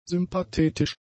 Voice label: "sympathetisch", German; adjectives

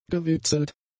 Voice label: "gewitzelt", German; adjectives

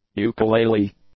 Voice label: "ukulele", English

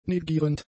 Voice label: "negierend", German; adjectives

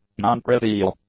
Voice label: "nontrivial", english; adjectives

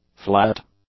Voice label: "flat", English; nouns

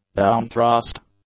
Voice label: "downthrust", English; nouns

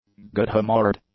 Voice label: "good-humoured", english; adjectives

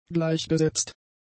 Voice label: "gleichgesetzt", German; adjectives

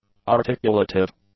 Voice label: "articulative", English; adjectives

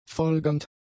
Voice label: "folgernd", German; adjectives

